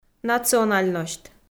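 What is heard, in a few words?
"национальносьт", Komi, female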